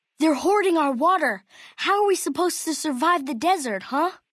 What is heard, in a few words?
male child greeting; FNV